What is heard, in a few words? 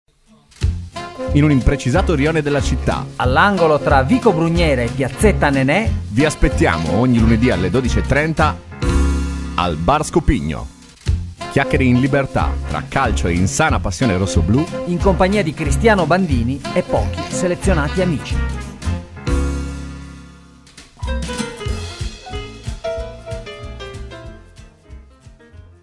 promo; bar scopigno